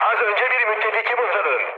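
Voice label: Turkish player killed ally, mood med, speech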